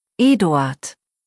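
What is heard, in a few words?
"Eduard", German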